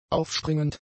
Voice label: "aufspringend", German; adjectives